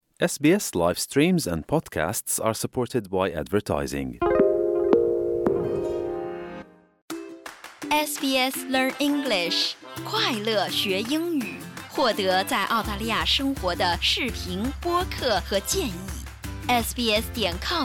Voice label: mandarin news flash; sbs